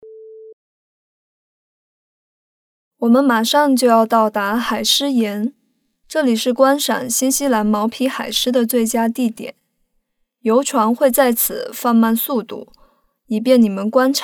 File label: Chinese; Milford Sound; Seal Rock